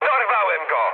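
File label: player killed enemy mood med, speech Polish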